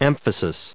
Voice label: "emphasis", us